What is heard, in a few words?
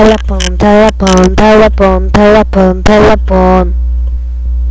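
"telephone", spoken word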